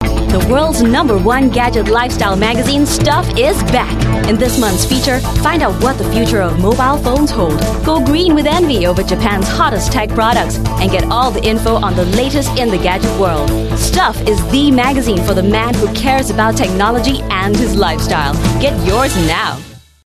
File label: English Singaporean Female